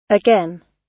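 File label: "again", British English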